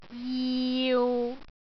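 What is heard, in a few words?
"jiu6", Cantonese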